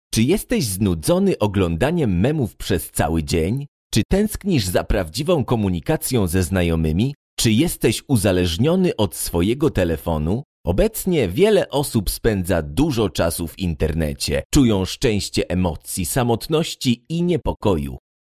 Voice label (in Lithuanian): VYR Lenku balsas, vyras